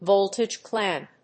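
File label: "Voltage-Clamp", English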